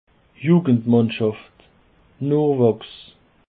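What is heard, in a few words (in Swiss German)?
"jungendmannschaft", Alsatian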